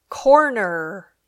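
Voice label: "corner", English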